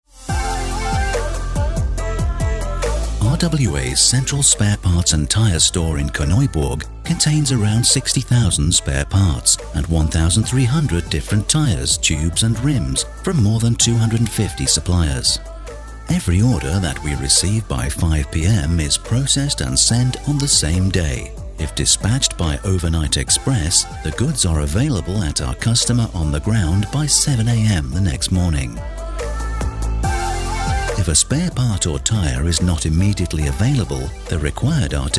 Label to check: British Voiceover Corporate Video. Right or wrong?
Right